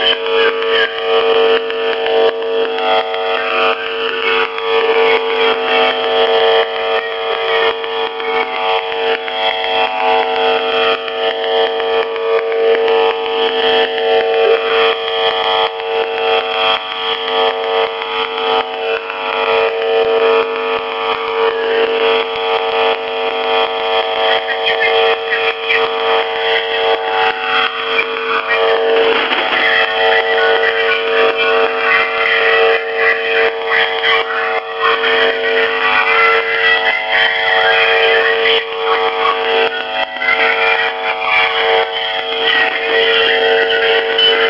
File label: open microphone, Russian military voice stations; Katok-65